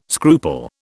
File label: "scruple", British